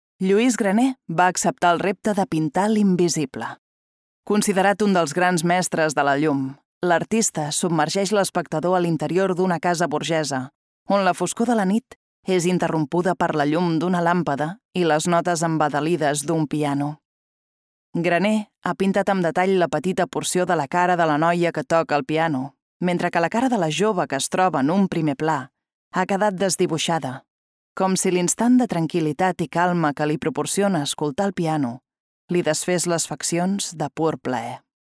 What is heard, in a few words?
audioguide, Catalan, female